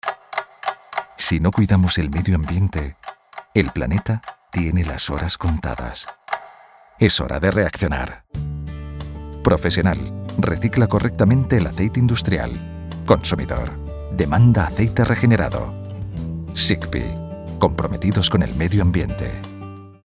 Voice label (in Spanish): Cuña